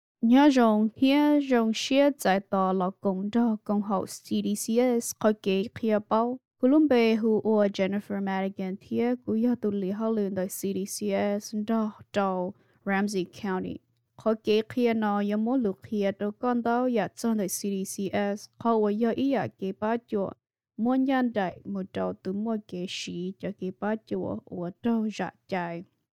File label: female hmong voice over narrative